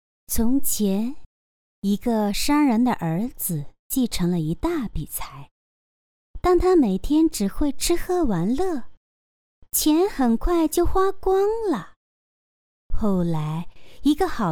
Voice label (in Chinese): 儿童故事; 商人的儿子